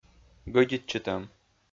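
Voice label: "гӧдитчытӧм", Komi-Zyrian, male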